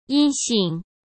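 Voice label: "阴性", Mandarin Chinese; hsk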